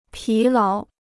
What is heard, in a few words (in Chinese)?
"疲劳", Chinese